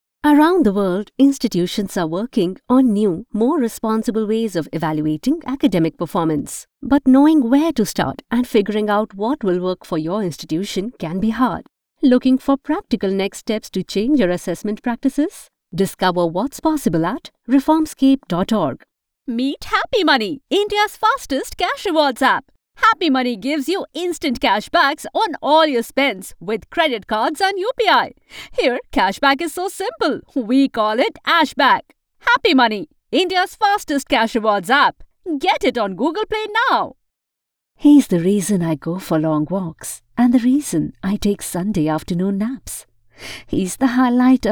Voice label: English Samples

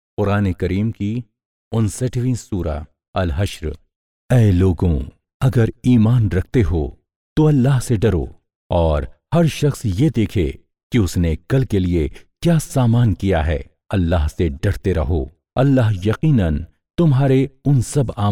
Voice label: quran vo

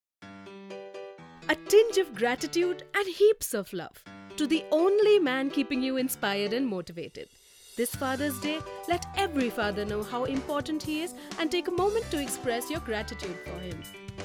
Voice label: English RADIO SPOT

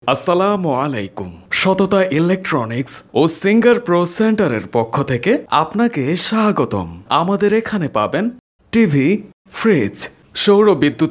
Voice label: bangla

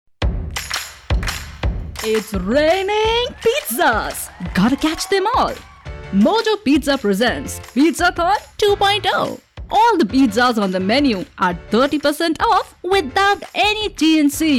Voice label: English advertisement

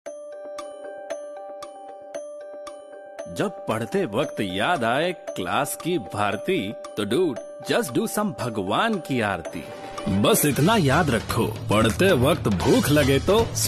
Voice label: Hindi Voice Over; Snickers

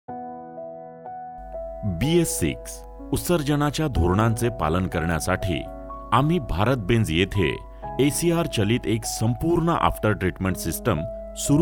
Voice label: Marathi; BS6

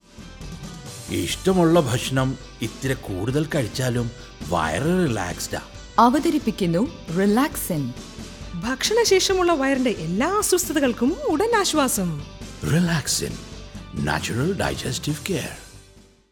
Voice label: promo malayalam; relaxin

